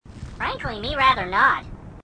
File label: grunt dopey; h2dialogue